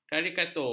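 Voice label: "karikatur", Malay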